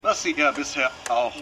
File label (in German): Radiosprecher; For All Mankind